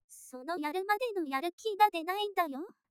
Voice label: test, open jtalk